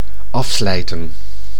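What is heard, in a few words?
"afslijten", Dutch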